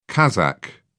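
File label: "kazakh", British English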